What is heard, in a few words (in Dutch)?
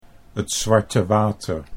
"t zwarte water", Dutch